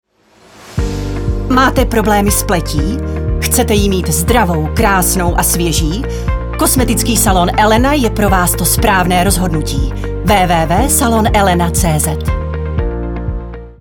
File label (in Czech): ukazka reklama